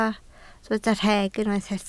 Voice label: "rheg", Welsh